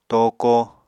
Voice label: "tooko", apatani

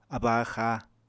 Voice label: "abba-axá", apatani

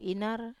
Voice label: "inar", Wamesa

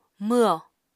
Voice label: "mwho", Apatani

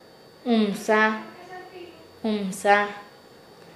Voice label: Nasa Yuwe; tejedor; paez

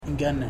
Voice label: "nose", Ipili

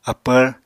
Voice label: "apvr", apatani

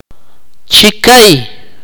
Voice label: "mother's mother", Akateko

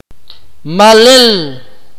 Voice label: "pour out", Akateko

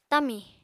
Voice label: "tamì", Apatani

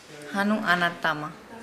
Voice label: Nukuoro; have children

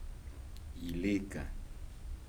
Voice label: spicy, Kibembe

